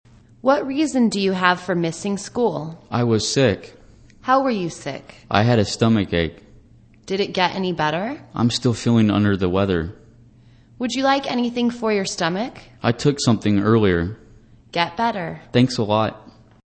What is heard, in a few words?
english conversation Expressing Concern